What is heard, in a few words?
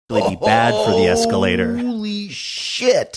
drawn out oh holy shit